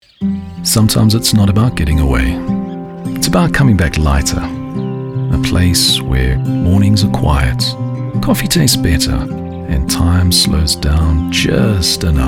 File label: Soft Sell, voiceover